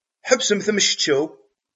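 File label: "Ḥebset amcetcew!", Kabyle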